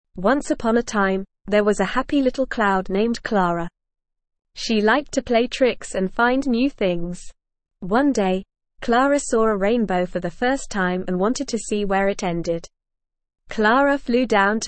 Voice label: ESL Short Stories for Kids Beginner NORMAL Reading; The Adventures of Clara Cloud